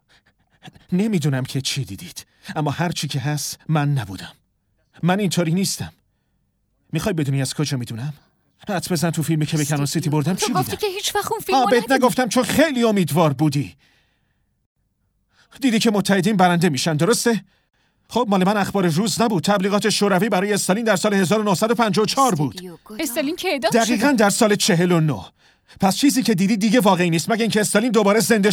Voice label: dub